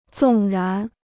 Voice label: "纵然", Chinese

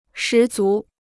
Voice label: "十足", Chinese

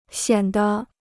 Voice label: "显得", Chinese

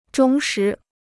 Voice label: "忠实", Chinese

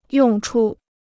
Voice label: "用处", Chinese

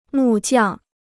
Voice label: "木匠", Chinese

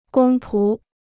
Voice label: "公仆", Chinese

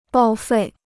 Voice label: "报废", Chinese

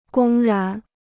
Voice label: "公然", Chinese

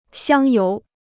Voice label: "香油", Chinese; 香油 (xiāng yóu); sesame oil; perfumed oil

